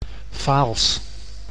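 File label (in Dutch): "Vaals", Dutch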